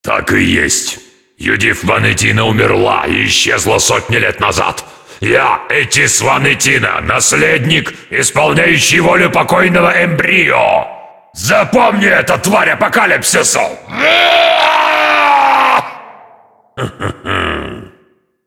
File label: cinematic voice, ru; lineage2